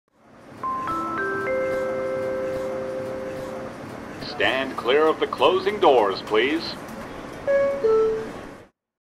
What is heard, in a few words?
first announcemen